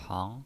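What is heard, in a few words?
"hang", Mandarin Chinese, second tone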